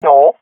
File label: negative, swat fem; Counter Strike Model Pack; CS:GO